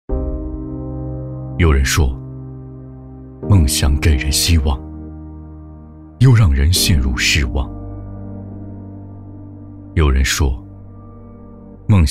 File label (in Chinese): 男 走心